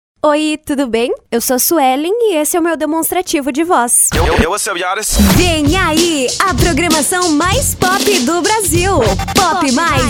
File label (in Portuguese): locutora